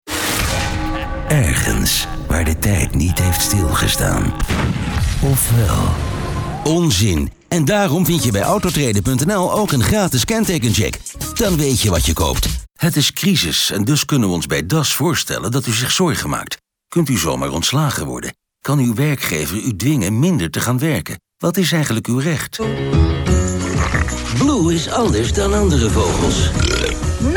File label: Male 45 55 years Versatile, dutch netherlands